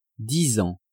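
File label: "disant", French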